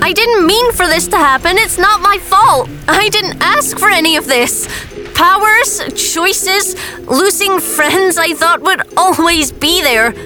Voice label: Kid